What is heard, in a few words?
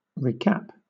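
"recap", British English; pronunciations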